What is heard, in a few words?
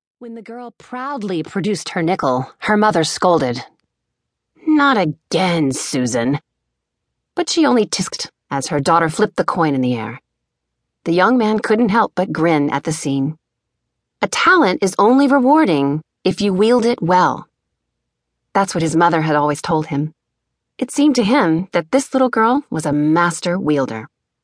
audiobook